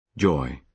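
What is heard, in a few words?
"joy", British English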